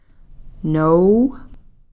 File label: "noow", downriver